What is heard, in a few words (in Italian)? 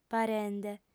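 "parende", lingua sarda, fem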